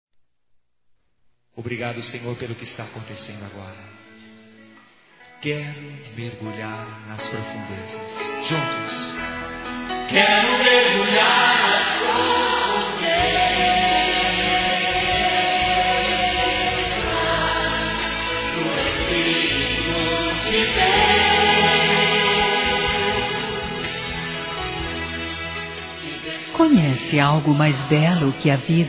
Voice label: Neutro Católico feminino

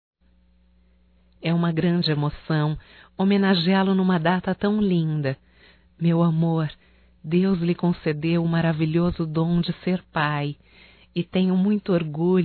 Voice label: DIA DOS PAIS ROMâNTICA (F)

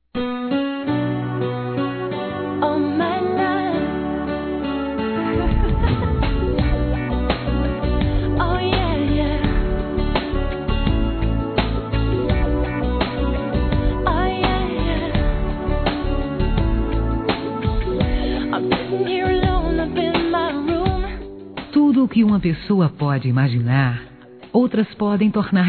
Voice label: NAMORO NET, Telemensagens Românticas fem

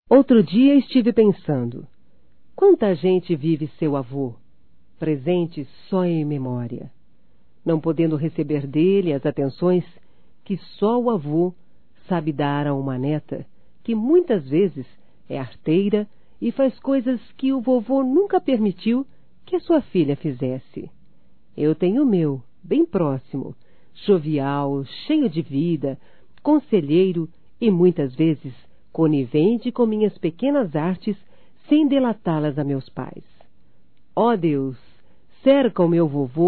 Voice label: Aniversário Feminino, Telemensagens

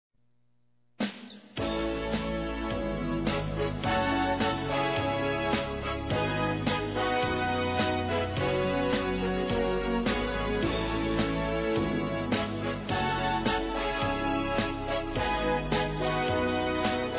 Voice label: Boas FÉRIAS Feminino; Telemensagens; Telemensagens 2017

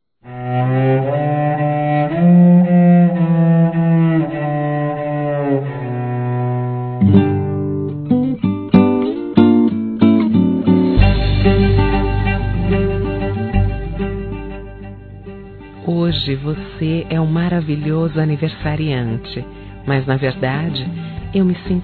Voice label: aniversario romantico, fem; Aniversários

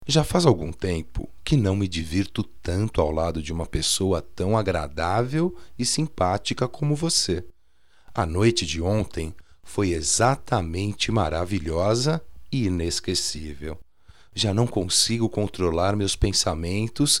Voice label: Encontro agradavel - M

